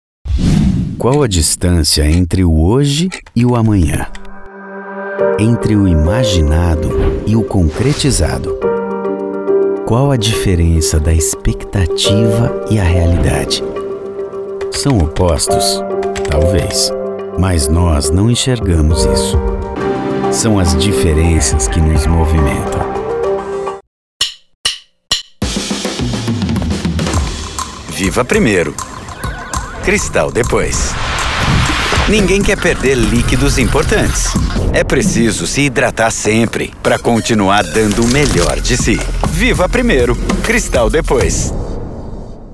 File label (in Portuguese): rep completo locutor